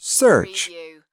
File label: "search", US male